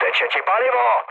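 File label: tank damaged, Czech, mood low; speech; War Thunder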